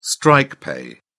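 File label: "strike pay", English, British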